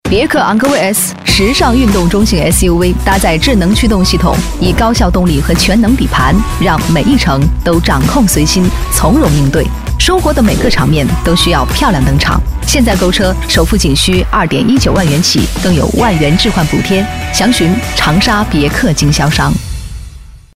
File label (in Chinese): 金融女声; 别克昂科威